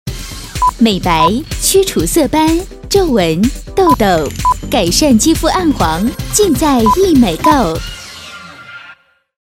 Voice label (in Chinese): 女 年轻甜美, 广告促销 护肤品; 护肤品尽在易美购